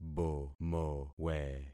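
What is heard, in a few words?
"bomowe", concatenated syllables; Affixing experiment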